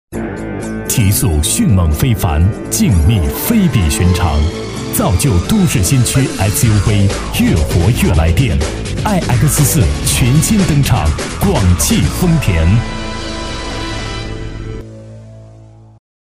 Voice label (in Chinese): 男 广告 汽车; 广汽丰田ix4